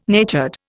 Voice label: "natured", Indian